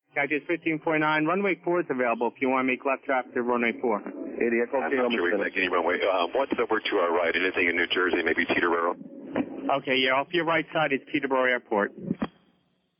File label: chatter teterboro